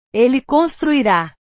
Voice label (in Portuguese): "ele construira", portugues; portugues/verbos